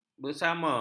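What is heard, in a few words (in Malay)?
"bersama", Malay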